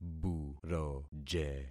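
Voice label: "buroje", concatenated syllables; Affixing experiment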